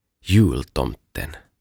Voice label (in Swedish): "Jultomten" Joulupukki